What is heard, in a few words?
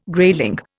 "grayling", Indian